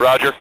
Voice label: Voice Male Radio Roger

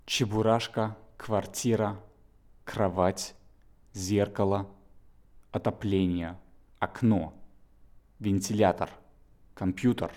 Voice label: Russian Words

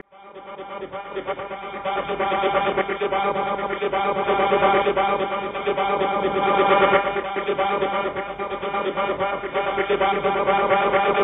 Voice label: Auction

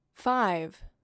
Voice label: "Five", F

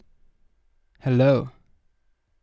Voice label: Man Saying Hello

